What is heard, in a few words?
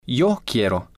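"yo quiero", male, spanish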